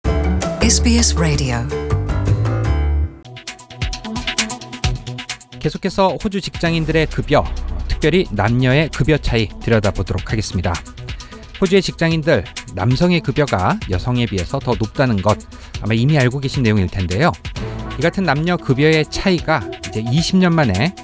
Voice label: korean; SBS 한국어 프로그램; 남녀 직장인 급여 격차 ‘20년 만에 최저치로 하락’